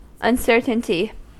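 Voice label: "uncertainty", US English; pronunciations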